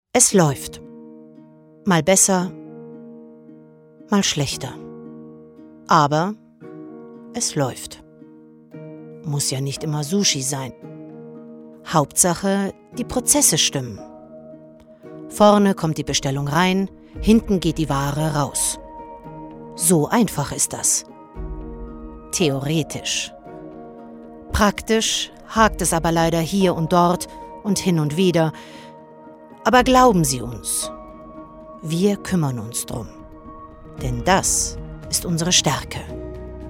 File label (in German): Image Corp, Presentation, German